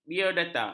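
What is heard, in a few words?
"biodata", Malay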